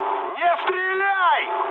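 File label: player friendly fire, mood med, Russian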